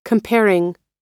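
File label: "comparing", American English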